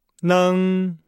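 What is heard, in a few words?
"neng", Chinese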